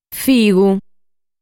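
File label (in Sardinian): "Figu", Sardinian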